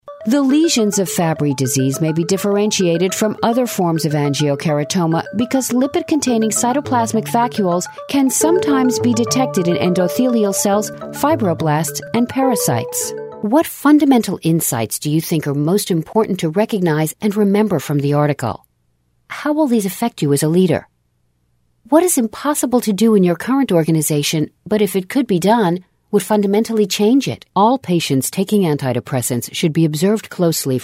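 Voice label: female narration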